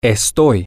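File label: i Am, spanish, male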